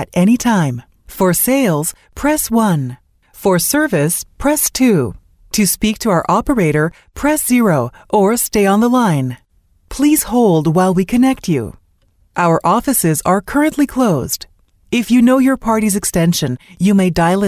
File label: IVR OHM English